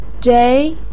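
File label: "zhei" second tone, Mandarin Chinese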